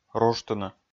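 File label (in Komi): "ружтыны", Komi, male; kpv audio dict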